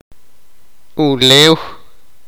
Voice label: tierra, sipakapense

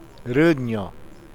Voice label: "women mujer", uitoto

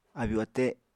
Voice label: "abyu-ate", apatani

